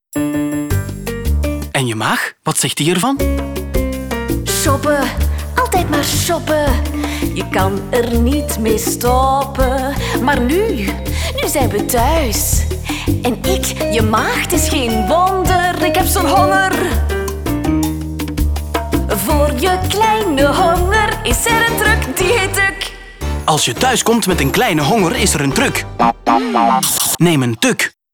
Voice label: radio; tuc